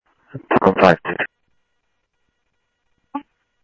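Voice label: Lakes Sheriff Lake Patrol, scanner audio trunk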